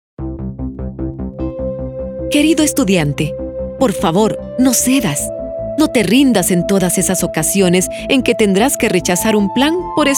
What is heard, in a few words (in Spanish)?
Locucion Motivacional